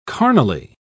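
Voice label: "carnally", British English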